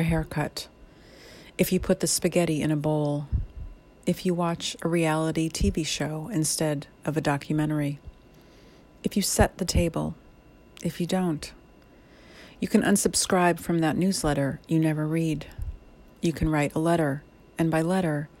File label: narrative